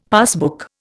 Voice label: "passbook", Indian; Dictionary